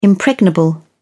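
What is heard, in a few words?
"impregnable", British English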